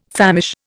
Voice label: "famish", Indian